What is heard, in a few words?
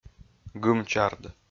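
"гым-чард", Komi, male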